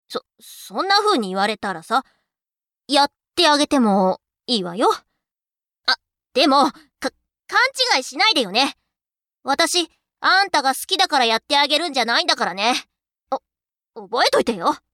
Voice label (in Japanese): tundere